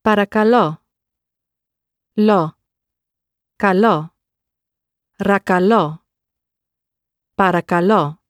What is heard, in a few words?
"parakalo", Greek